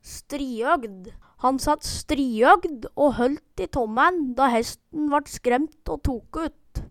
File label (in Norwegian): striøgd, Numedalsmål